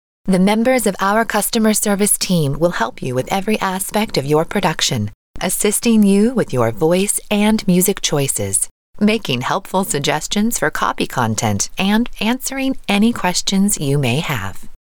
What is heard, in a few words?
Female